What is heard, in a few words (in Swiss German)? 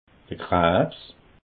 "krabs", alsace